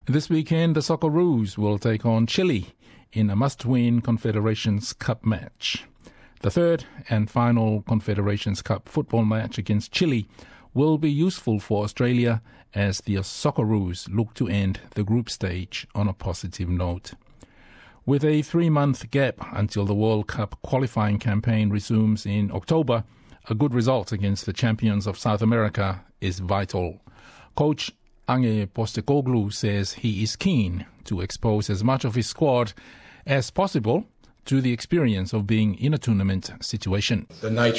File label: samoan; Socceroos must-win clash with Chile; SBS Samoan